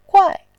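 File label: "guai", Mandarin Chinese, fourth tone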